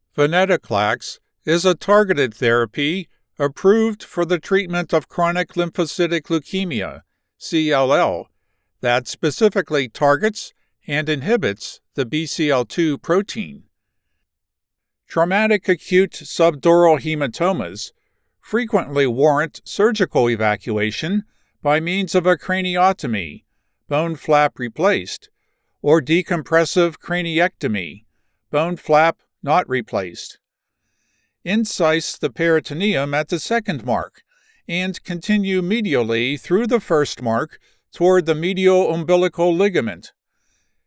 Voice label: medical narration; demo reel